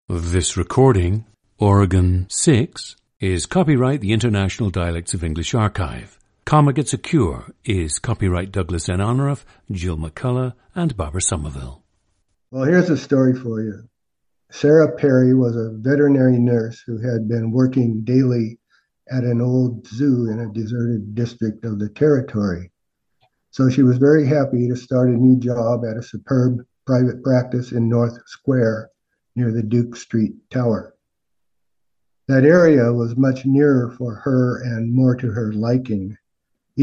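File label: oregon, International Dialects of English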